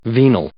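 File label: "venal", English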